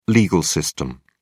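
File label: "legal system", British English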